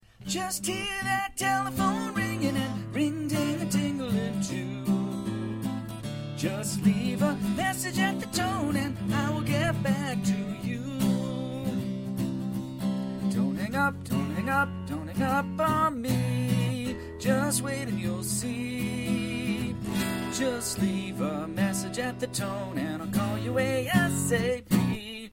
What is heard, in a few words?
christmas voicemail message